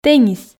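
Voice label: "теннис", Komi, female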